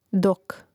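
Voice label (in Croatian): "dok", Hrvatski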